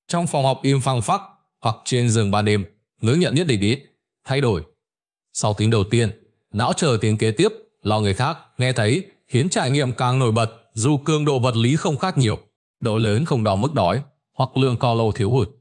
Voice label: narration; Vi Sao Bung Ban Reo Khi Doi; StickerMan